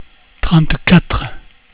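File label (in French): "trente quatre", French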